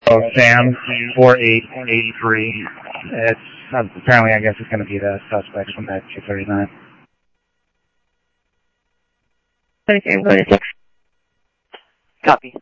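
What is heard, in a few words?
Lakes Sheriff Lake Patrol, scanner audio trunk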